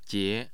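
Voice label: "jie", Mandarin Chinese, tone 2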